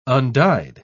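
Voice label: "undyed", British English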